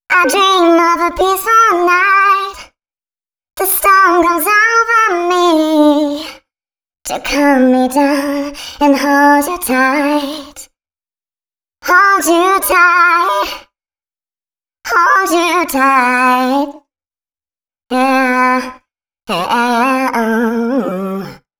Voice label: female 138, hooklines, Dance Ultra Voice; hooklines 136-140 BPM; Mutekki Media; Dance Ultra Voice VOL-1